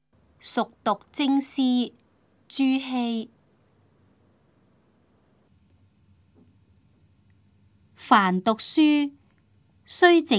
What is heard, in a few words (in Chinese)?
cantonese; 熟讀精思; 粵語